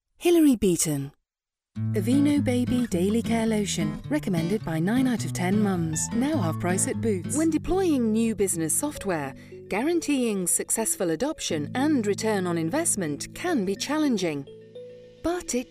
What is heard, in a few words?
corporate commercial